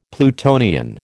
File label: "Plutonian", American